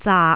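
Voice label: "zaak6", Cantonese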